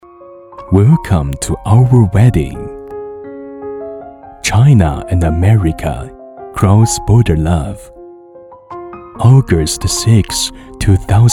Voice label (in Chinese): 奢华婚礼 英文版头